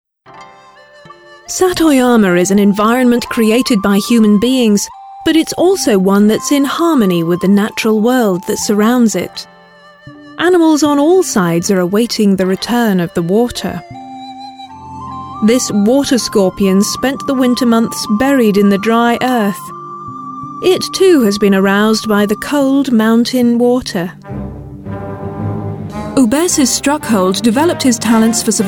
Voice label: Narratives